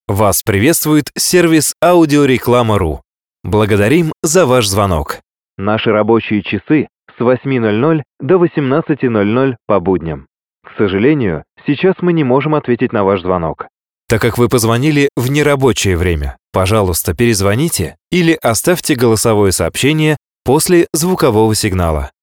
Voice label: male ivr